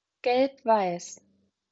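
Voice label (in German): "gelb-weiß", German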